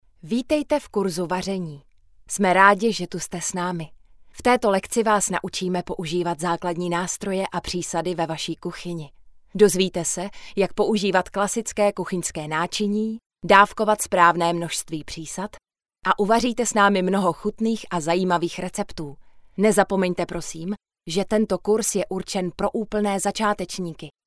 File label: female Czech; Native, attractive voice for Czech language; Mumbai/Czech Republic